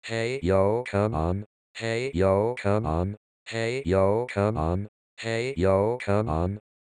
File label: speech fruity